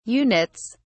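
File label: "units", British English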